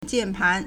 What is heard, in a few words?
"jian4 pan2", Chinese